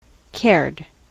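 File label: "cared", US English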